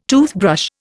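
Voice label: "toothing", Indian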